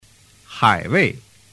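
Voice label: "haiwei", Chinese; doi dau giong; giao trinh 301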